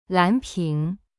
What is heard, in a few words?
"lan2ping2", Chinese; cyberchinese